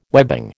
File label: "webbing", American